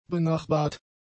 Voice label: "benachbart", German; adjectives